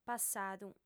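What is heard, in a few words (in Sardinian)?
"passadu", female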